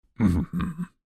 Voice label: old man; Fruity Burst 2